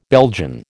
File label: "Belgian", American